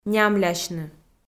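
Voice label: "нямлясьны", Komi, female; kpv audio dict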